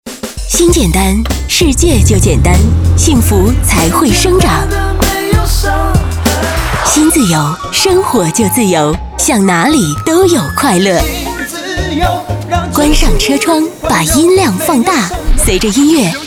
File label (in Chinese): 电台台宣; FM1061电台台宣